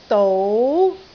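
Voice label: "dou2", Cantonese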